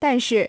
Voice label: "但是", china